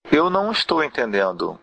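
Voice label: no understand, Brazilian Portuguese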